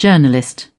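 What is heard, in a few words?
"journalist", British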